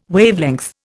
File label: "Wavelength", Indian